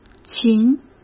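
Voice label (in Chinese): "琴", Mandarin Chinese; chinese-flashcards; hsk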